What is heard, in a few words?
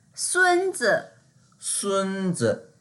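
"sun1zi", Mandarin Chinese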